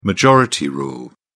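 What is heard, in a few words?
"majority rule", British English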